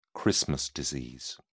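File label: "christmas disease", British English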